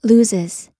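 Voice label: "loses", American English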